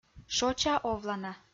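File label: "шоча овлана", Komi, female; kpv audio dict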